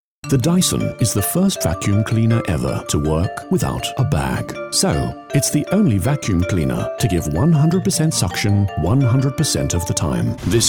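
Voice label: British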